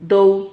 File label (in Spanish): "dou", Silabas